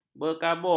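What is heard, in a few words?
"berkabun", Malay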